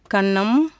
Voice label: cheek, tamil; JIPA